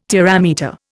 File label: "terametre", Indian